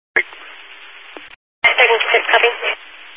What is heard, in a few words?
Highway Patrol, Phoenix Metro East Area, scanner